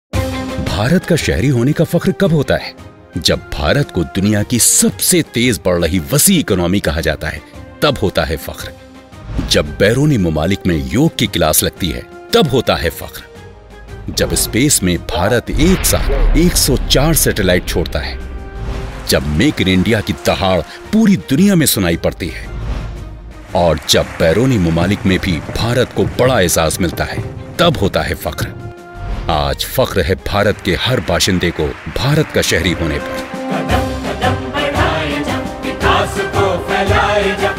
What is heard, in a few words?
urdu TVC